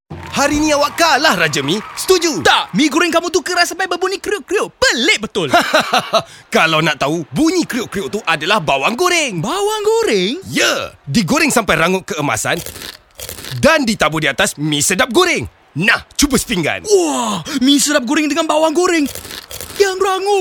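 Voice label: Malay mono; Mi Sedap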